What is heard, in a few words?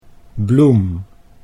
"bloem", Dutch